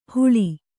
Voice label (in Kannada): "ಹುಳಿ", kannada